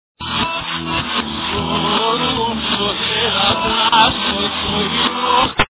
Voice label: reverse; Evidencias cristianas

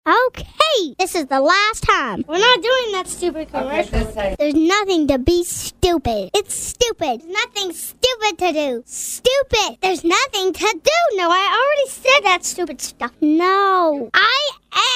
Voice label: ANGRY

